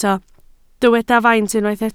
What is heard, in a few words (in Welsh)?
"faint", Welsh